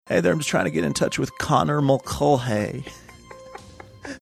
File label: whispering; hey there im just trying to get in touch bill clinton